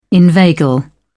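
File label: "inveigle", British English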